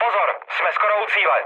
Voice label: near waypoint, speech cze, mood low; War Thunder

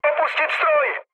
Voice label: bailout, speech cze, mood low; War Thunder

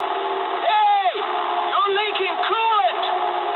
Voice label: eng damaged water mood low, speech eng; War Thunder

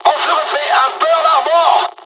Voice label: dialog, dialogs french; midway; War Thunder

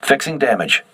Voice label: voice message repairing, crew ships english

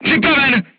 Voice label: voice message charged, crew tanks czech

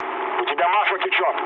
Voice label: damaged oil, mood low, speech ru; War Thunder

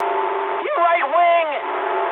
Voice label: eng right wing mood high, speech eng; War Thunder

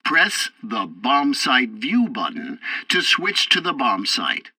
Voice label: tutorial bomber sight, english; War Thunder

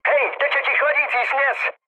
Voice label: damaged water, speech cze, mood low; War Thunder

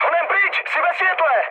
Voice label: searchlite, speech cze, mood med; War Thunder

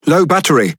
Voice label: Speech Alarms Sub Battery Low, EN GB; World Of Warships

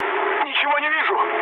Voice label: searchlite, mood low, speech ru; War Thunder

